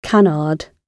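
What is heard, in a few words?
"canard", English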